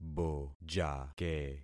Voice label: "bojake", concat syllables; Affixing experiment